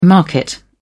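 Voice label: "market", English, British English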